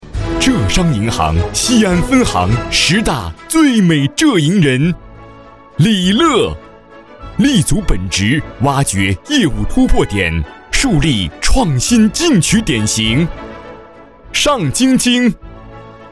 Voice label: 颁奖大气磅礴; 浙商银行

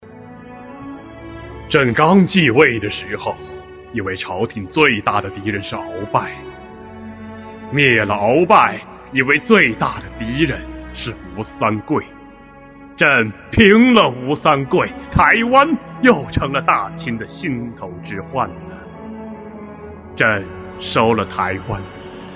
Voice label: 影视配音

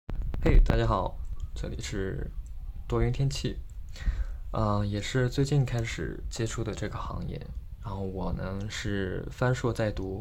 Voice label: 简介配音

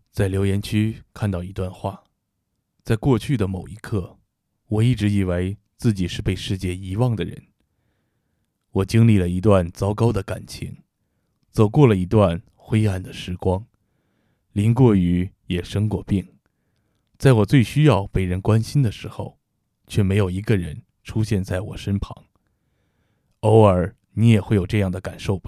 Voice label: 情感讲述

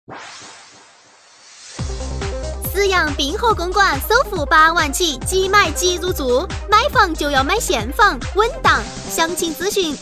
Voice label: 促销四川话

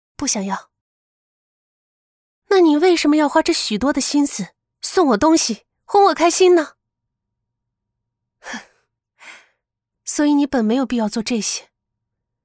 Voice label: 女角色

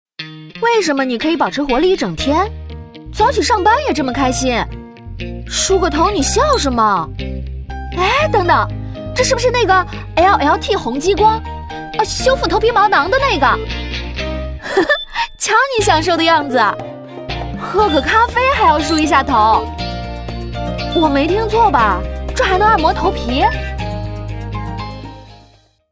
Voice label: 广告活泼按摩梳

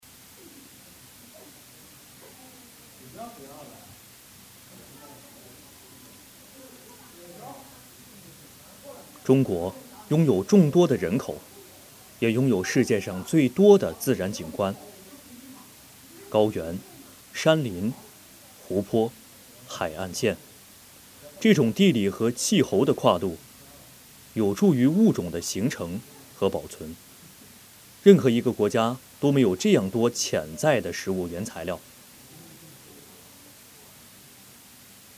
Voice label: 人与自然配音原声

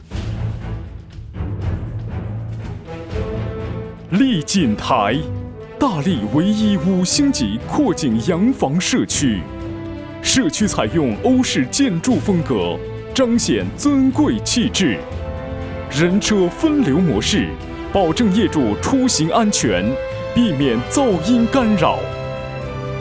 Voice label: 大气 房产 广告

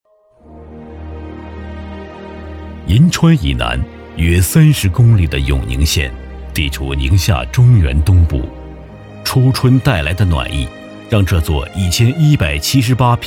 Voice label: 企业专题; 永宁邮政

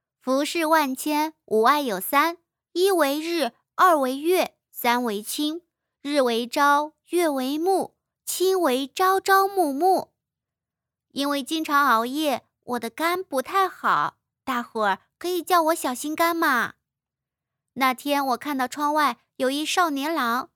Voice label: 少女音

